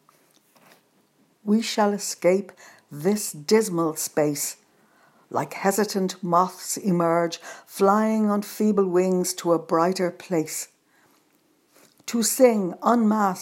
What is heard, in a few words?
poem